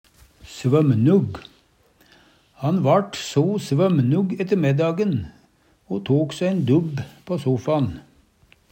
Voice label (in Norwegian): svømnug, Numedalsmål; Adjektiv